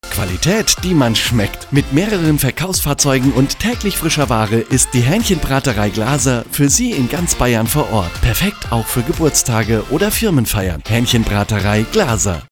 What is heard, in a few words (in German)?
radiospot; antenne bayern